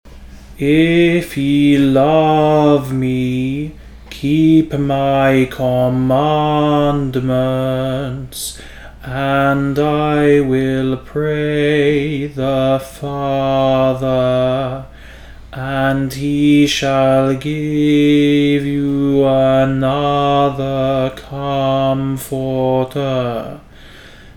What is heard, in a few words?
modern british english legato; if ye love me